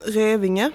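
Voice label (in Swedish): "Revinge", Swedish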